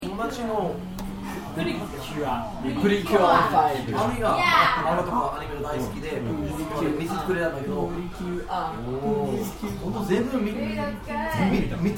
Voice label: AMBIENCE JAPANESE IZAKAI FOREIGNERS SPEAKING JAPANESE, English speaking foreigners; English speaking foreigners trying to speak Japanese in a Japanese Izakaiya; Talking